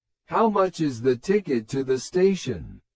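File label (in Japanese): スロー, リスニング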